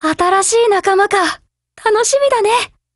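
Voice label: BUILD OVER Japanese, Voice; GF Alarm; Colt SCW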